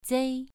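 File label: "zei2", Chinese pronunciation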